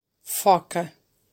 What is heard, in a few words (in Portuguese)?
"Foca", Português; mamiferos-marinhos; Pronunciação; lexicomarinho